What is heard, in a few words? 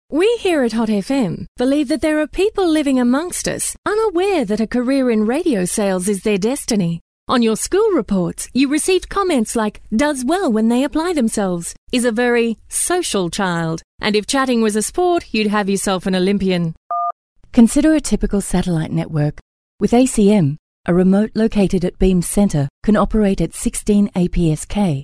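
English Australian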